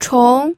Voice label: "chong2", pinyin